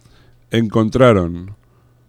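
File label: "encontraron", Spanish; laboratori fonetica; paraules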